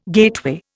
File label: "gateway", Indian